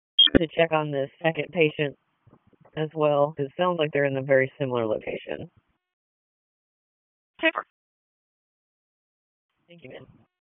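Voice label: EMS Dispatch, p25; wake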